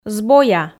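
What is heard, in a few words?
"збоя", Komi, female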